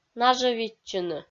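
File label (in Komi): "нажӧвитчыны" Komi, female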